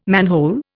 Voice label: "manhole", Indian

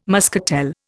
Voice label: "muscatel", Indian

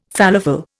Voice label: "falafel", Indian

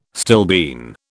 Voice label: "stilbene", British

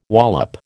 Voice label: "wallop", American

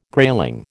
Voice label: "grayling", American

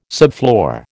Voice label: "subfloor", American

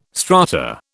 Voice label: "strata", British

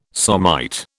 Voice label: "somite", British

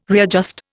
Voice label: "readjust", Indian

